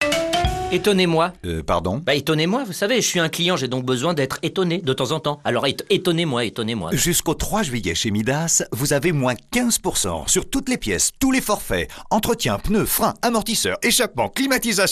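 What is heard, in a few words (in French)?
spot, spots radio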